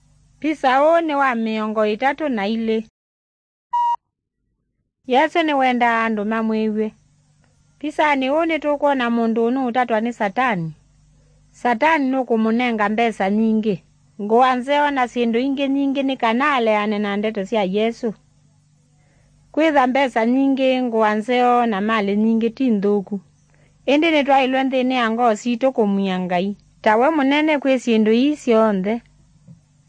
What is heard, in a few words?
kikamba drama; good news; bible